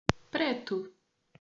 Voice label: "preto", portuguese

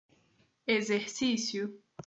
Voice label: "exercício", portuguese

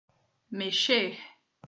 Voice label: "mexer", portuguese

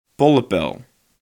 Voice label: "Pollepel" incorrect, dutch

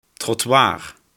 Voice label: "Trottoir", Dutch; trotwaar

